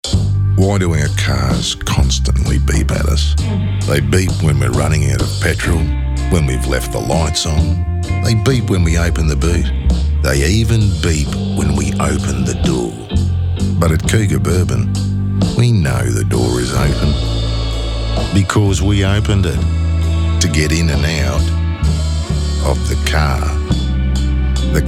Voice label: radio; Cougar Bourbon; Auto Electrical Madness